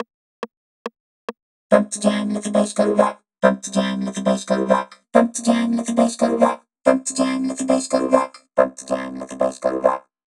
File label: Pump the Jam (C - 140BPM), Shouts; Vengeance Samples